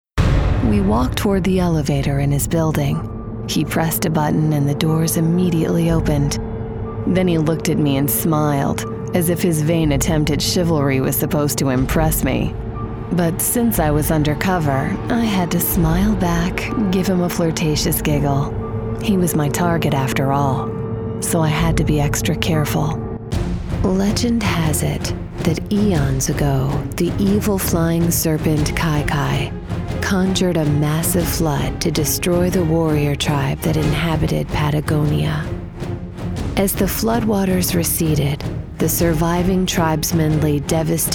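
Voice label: Storytelling, female voice actor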